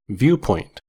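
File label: "viewpoint", US English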